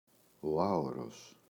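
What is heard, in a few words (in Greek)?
"Άωρος-ο", Greek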